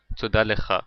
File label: thank you in hebrew to a male